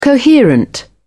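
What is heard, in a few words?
"coherent", British English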